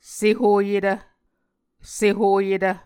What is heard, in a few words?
"sehoyetv", Muscogee